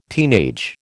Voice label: "teenage", American